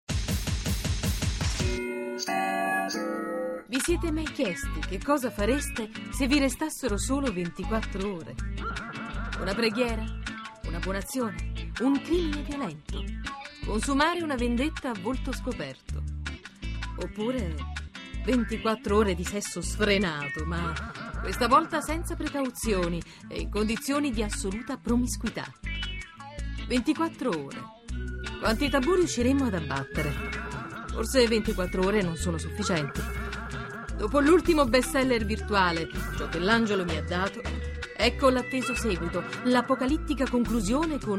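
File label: Italian, female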